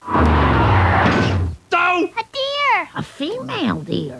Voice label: doh female; simpsons